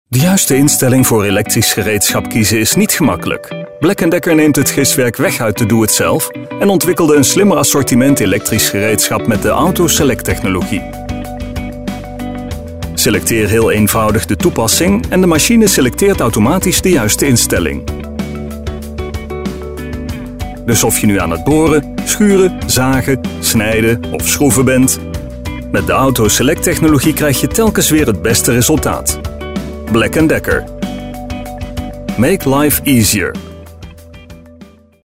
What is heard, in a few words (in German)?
dut werbung